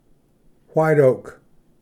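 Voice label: "white oak", English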